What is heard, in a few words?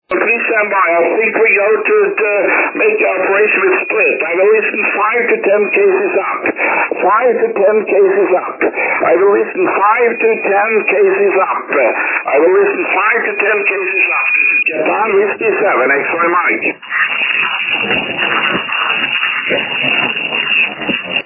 10m ssb